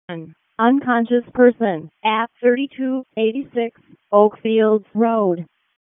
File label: WC EMS ALERT, p25; wake